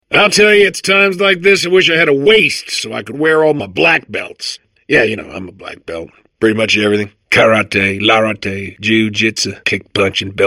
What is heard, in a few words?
babble; portal2